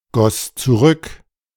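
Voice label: German "goss zurück"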